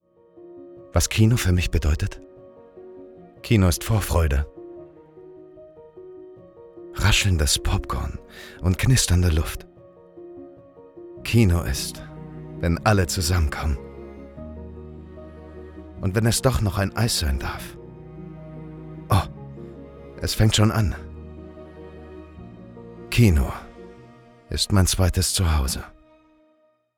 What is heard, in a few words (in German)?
Kino Werbung